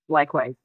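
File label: Voice Acting es, female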